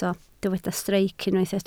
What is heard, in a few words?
"streic", welsh